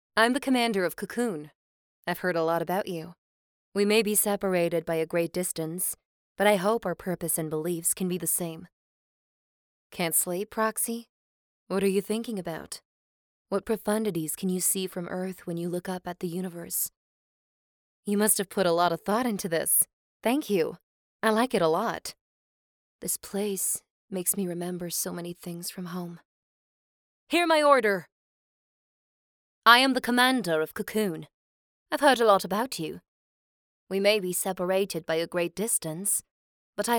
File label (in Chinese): 美式女英文游戏女青年